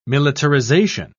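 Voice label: "militarisation", British English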